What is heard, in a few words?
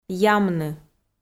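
"ямны", Komi, female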